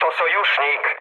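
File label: player friendly fire, mood low, Polish speech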